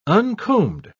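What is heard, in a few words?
"uncombed", British English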